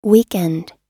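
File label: "weekend", English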